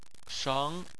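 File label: "sheng" tone 2, Chinese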